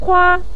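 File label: "kua", Mandarin Chinese, neutral tone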